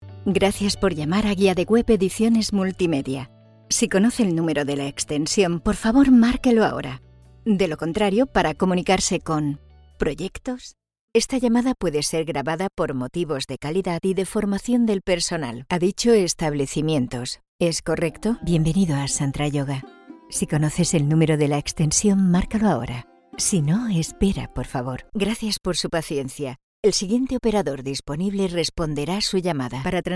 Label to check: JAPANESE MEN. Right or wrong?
Wrong